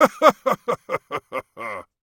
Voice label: VOICE of God - Game Phrase - 'Laugh' (intense), DRY; Articulated Sounds